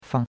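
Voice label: "fang", Chinese, neutral tone; Cours-de-Chinois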